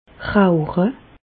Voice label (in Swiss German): "rauche", Bas Rhin; fumer; medecine